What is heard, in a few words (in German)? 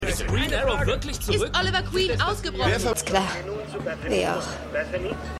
Reporter Reporterin Nachrichtensprecher; Stimmproben; Arrow